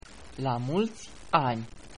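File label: happy birthday romanian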